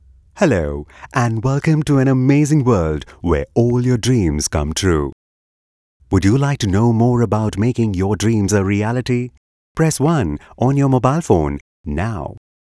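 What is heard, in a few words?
IVR english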